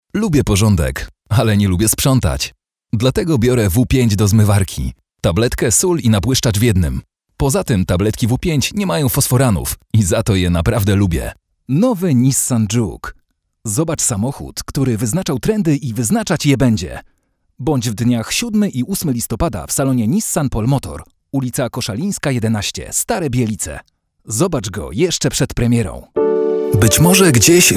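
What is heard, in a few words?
Polish male; lektoring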